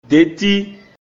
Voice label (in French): "Dĕtí", Toile d'araignée; Mina